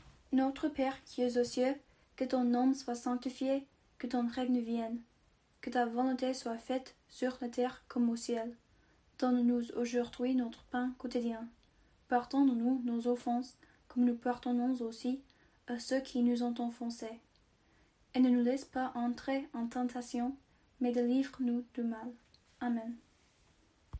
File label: french